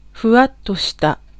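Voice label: Japanese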